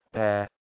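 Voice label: "bher", Proto-Indo-European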